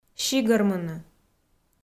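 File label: "сигӧрмыны", Komi, female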